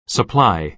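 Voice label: "supply", American English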